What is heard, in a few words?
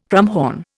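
"krummhorn", Indian English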